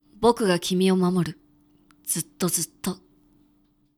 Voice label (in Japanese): dansei, bokugakimiwomamoru zuttozutto; 男性 「僕が君を守る。ずっとずっと。」; クール